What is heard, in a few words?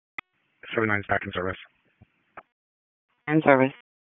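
EMS DISP, radio; wake; p25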